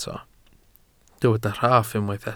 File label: "rhaff", Welsh